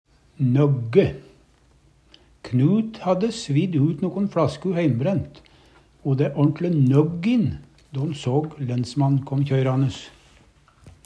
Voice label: nogge, Numedalsmål

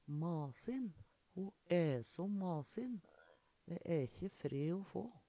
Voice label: masin, Numedalsmål

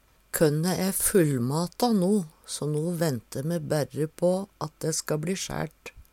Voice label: fullmata, Numedalsmål; Kønne æ fullmata no so no vente me berre på at dæ ska bLi sjært.

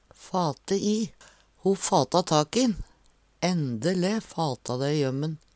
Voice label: fate i, Numedalsmål

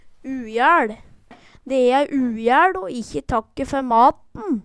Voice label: ujaeL, Numedalsmål

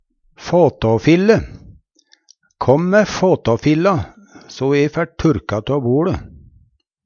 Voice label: fåtåfille, Numedalsmål; Kåm mæ fåtåfilla so e fær turka tå boLe.; Substantiv hokjønn

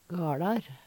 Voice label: GaLar, Numedalsmål; Gårder; Særnamn (stadnamn)

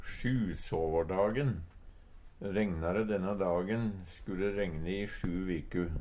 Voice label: "sjusåvårdagen", Numedalsmål; Substantiv hankjønn; Tida (dagen, året, merkedagar); Regna de denne dagen sku de regne i sju viku.

